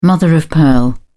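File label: "mother-of-pearl", British English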